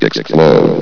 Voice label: "explode", vox

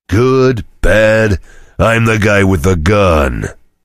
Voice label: chitchat; svencoop; voice commands; Duke

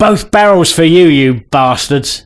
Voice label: Cheer, voice commands male; svencoop

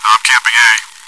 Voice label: chitchat, bot, voice commands; svencoop

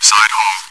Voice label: chitchat, bot, voice commands; svencoop

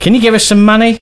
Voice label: Need Money, male; svencoop

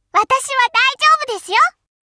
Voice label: taunt, voice commands Anime Loli; svencoop

